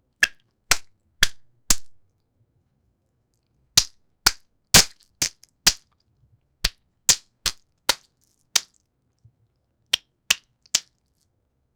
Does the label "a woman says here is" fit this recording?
Yes